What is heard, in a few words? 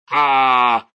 Oldman Alarm; Bob the Robber 2